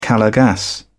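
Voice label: "calor gas", British English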